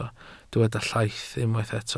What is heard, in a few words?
"llaith", Welsh